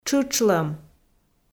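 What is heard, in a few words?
"тшытшлӧм", Komi, female; audio dict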